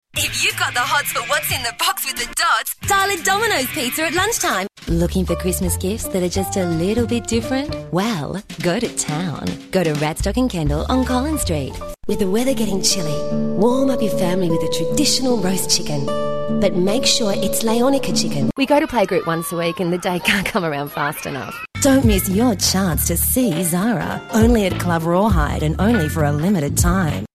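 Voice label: English Australian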